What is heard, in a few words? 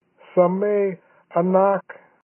Bale Of Hay Samay Aanak, Kumeyaay